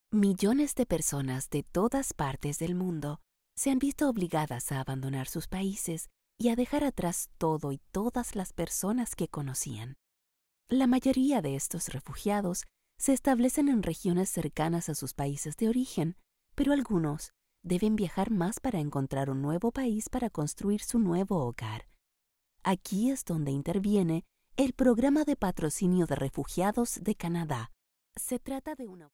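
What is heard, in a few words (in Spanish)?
Voice Talent, español neutro latino americano mujer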